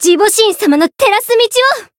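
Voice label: chant, Japanese; flammelapis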